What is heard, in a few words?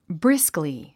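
"briskly", English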